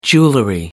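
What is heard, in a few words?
"jewelry", British English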